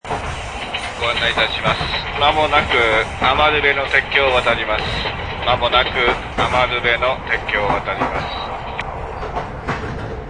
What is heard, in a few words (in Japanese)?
hamakaze amarube, syanaihousou